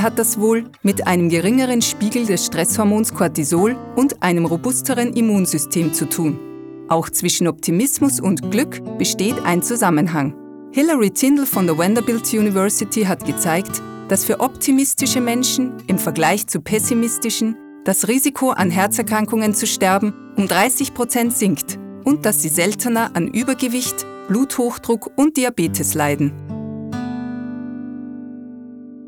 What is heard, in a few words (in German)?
DOKU Positive Gefuehle; Sprecherdatenbank